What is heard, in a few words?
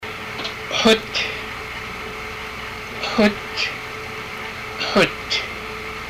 buttocks, haida language; Gut; Buttocks/box